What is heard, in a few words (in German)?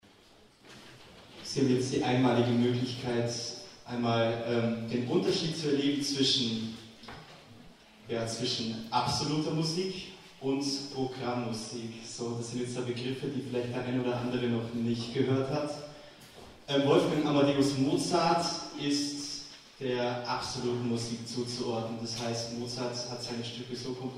Moderation; Euphonium Meets Piano; Live Mitschnitt